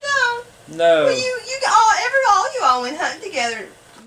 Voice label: Conversation with 52 year old white male and acquaintances West Virginia; american english dialect